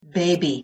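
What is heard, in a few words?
"baby", English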